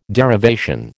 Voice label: "derivation", American

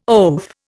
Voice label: "oaf", Indian

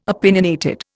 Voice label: "opinionated", Indian

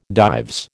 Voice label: "Dives", American

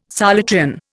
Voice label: "Solutrean", Indian

